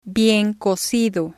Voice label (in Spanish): "bien Cocido", spanish